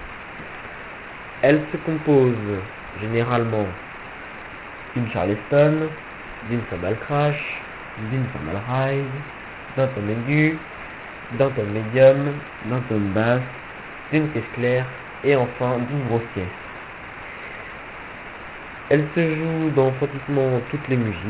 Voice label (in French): FR Batterie